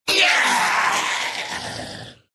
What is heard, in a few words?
death, ru; Dota 2